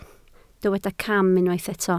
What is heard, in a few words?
"cam", Welsh